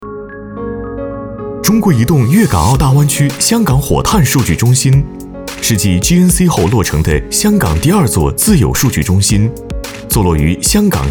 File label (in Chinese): 男 专题宣传 科技感; 中国移动